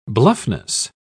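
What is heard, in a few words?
"bluffness", British English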